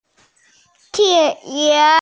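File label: "tiān é", Mandarin Chinese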